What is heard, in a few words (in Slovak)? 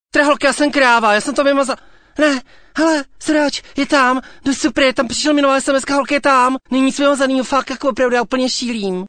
sms hlásky cz